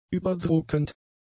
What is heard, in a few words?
"überdruckend", German; adjectives